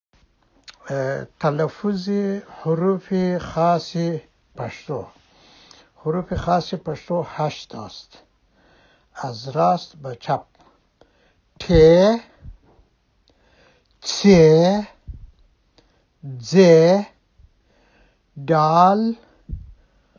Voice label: horuf, pashto